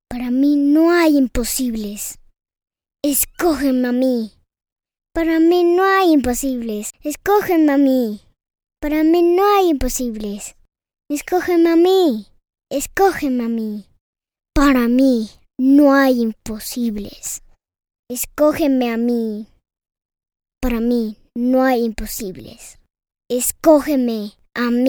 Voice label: Child 5 years old